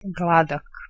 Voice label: "gladak", Bosnian